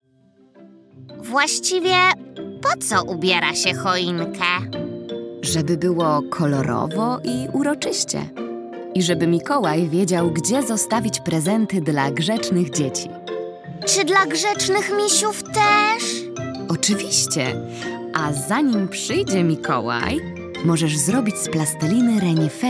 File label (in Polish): narracja; czasopismo mis; zima; rozmowa